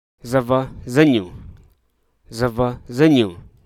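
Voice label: Hruso Aka; disrupt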